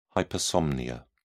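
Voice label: "hypersomnia", British English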